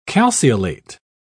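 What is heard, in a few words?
"calceolate", English, British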